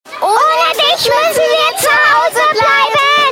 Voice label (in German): spot; gemeinde schonefeld; erzieher